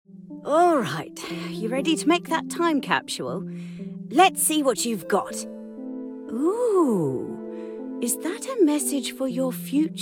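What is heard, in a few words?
Grandma Australian Female Senior Warm Sassy; australian voice over; video game voice actor; Fruitbus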